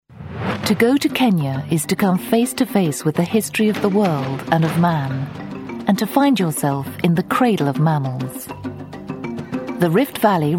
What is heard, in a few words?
documentary